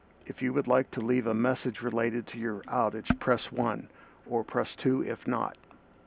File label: Voice Outage Recording Selection Message